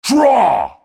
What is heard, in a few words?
Draw, PvP Announcer